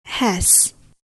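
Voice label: "has", English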